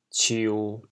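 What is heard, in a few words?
"ciu1", Teochew, chaoyang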